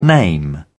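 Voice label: "name", British English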